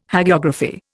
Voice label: "Hagiography", Indian